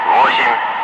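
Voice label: "5", spoken; Ka-50; Wingman; Digits; DCS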